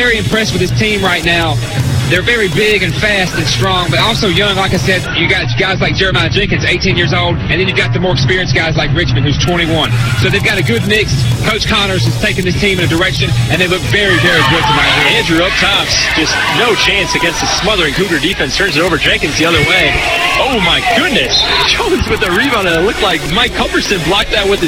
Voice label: Cougars PROMO